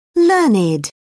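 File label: "learned", English, British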